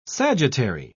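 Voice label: "sagittary", British English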